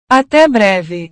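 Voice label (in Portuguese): "ate breve", Portuguese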